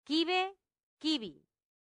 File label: "cibe cibi", latin; santillana